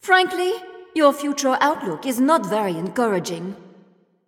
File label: French Taunt; French Athena; Chronos